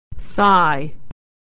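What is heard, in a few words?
"thigh", American English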